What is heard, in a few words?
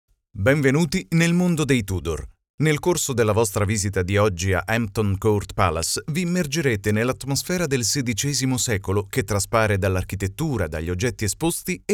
italian audio guides, voice actor